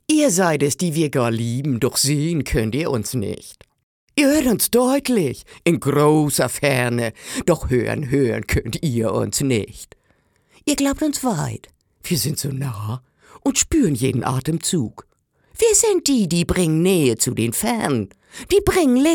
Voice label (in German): norddeutsch, frauen; sprecher